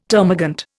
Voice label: "termagant", Indian